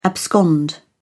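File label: "abscond", English, British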